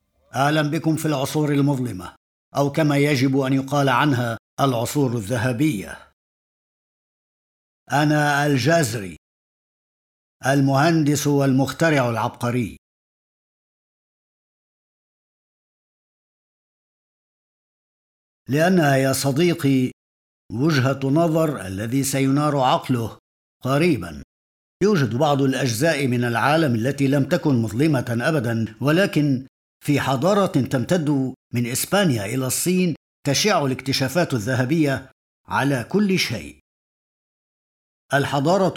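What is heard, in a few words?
arabic male narration; voice demos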